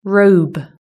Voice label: "robe", British English